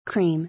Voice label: "Cream", English

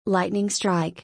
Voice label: "Lightning Strike", English; thunder-and-lightning-in-english

